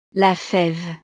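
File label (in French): "la feve", French; verduras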